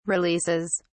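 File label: "releases", British English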